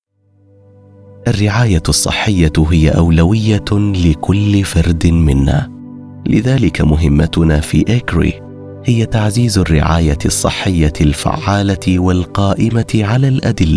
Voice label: Corporate Warm Caring Standard Arabic; ECRI

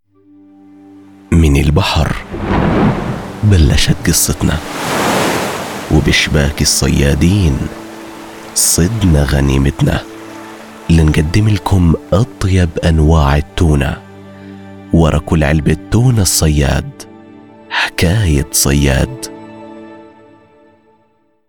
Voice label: Commercial Deep Powerful Jordanian Arabic; Al Sayad

